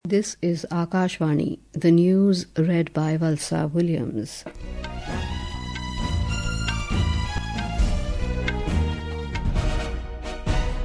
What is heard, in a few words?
English; Cricket: India thrashed England in Rajkot test; Midday News